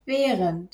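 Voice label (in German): "während", German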